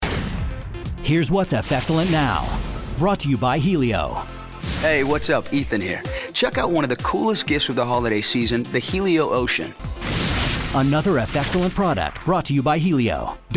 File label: young, male voices for radio commercials